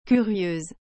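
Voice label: "curieuse", French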